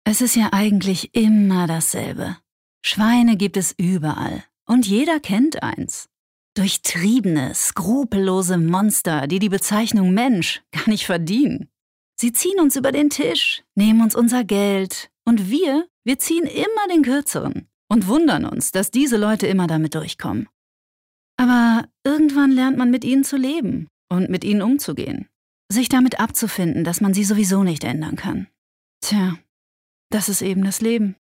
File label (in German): Sanft